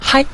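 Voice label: "hai", Mandarin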